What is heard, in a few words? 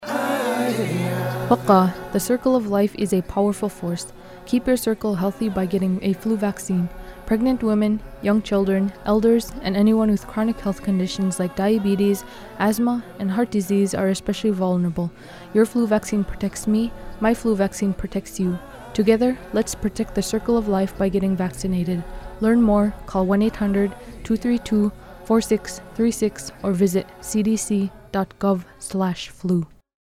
circleoflife yupik female; native and alaskan psas; flu